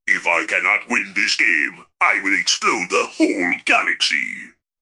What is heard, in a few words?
upset; fertig classic games